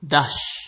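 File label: "dash", Nigerian Pidgin, male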